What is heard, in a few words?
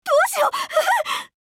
skill jp; chasers; bellona